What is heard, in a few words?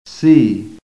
"SEE", american; PHONETIC REFERENCE